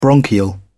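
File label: "bronchial", British English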